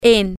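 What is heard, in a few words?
at in on, spanish, female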